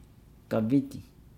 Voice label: "fish hook turtle shell", Nukuoro

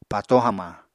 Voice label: "pató hamañ", Apatani

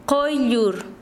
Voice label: Chanka, quechua; estrella

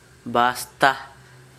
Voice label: bag, Gta, Dialect: Hill; bag, sack; household

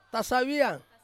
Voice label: "saviang", to sweat, Ansus

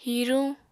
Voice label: "hiruñ", apatani

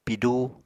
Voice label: "pidú", Apatani

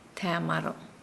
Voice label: Nukuoro; wash clothes

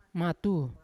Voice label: "matu" hard, Yawa

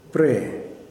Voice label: "hear", Kuman

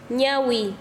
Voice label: Chanka, quechua; ojo

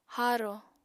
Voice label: "haaro", Apatani

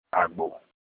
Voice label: ram "agbo", Olukumi

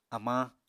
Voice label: "amañ", Apatani; Material Culture

